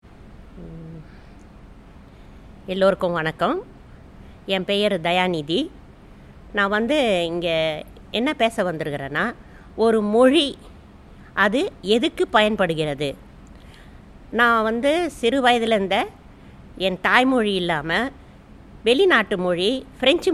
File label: tamil; tamil nadu